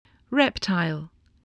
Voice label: "reptile", English